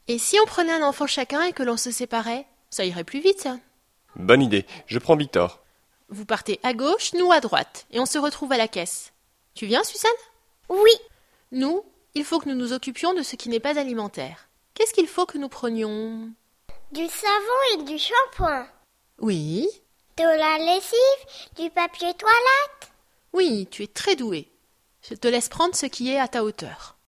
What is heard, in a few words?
dialogue, French